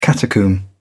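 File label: "catacomb", British English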